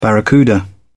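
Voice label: "barracuda", British English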